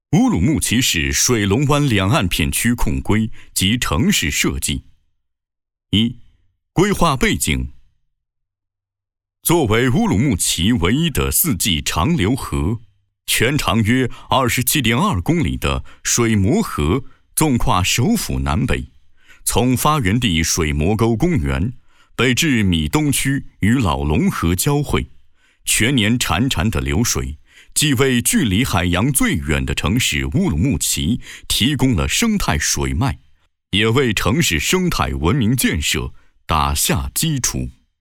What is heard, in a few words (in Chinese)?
男 项目汇报篇 大气正式